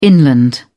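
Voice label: "inland", British English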